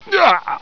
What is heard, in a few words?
pain; residuallife